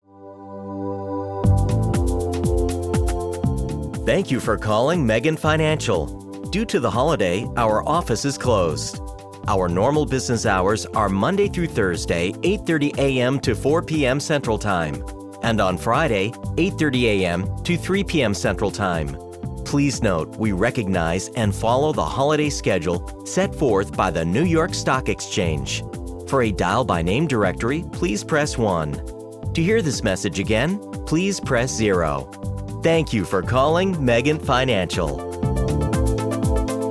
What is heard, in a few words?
IVR; Megent Financial